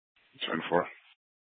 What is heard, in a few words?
PD Dispatch